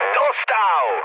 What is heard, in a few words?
aircraft destroyed mood med, speech pol